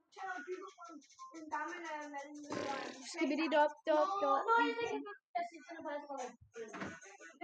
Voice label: danish classroom

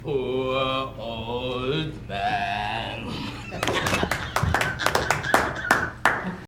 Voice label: poor old man; burgdorf

